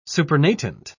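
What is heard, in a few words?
"supernatant", English, British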